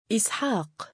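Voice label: "2is7aaq" male, Arabic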